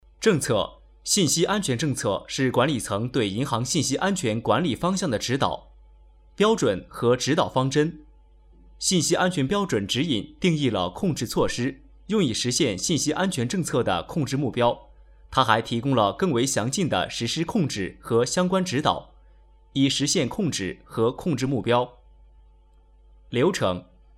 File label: Male Mandarin